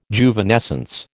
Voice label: "Juvenescence", American